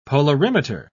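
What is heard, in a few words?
"polarimeter", British English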